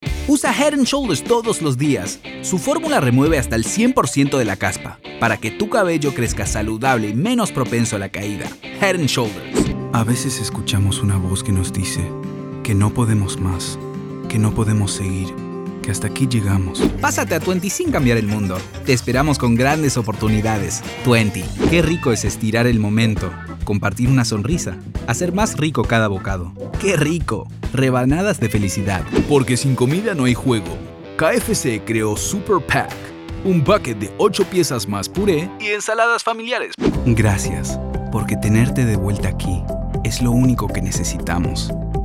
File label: Spanish